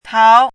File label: "tao2", chinese voice